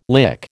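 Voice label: "lick", American English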